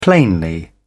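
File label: "plainly", English, British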